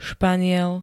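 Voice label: "španiel", Slovak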